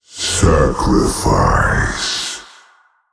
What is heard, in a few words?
Male Old God